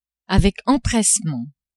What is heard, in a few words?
"avec empressement", French; adverbs